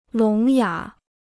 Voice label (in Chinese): "聋哑", Chinese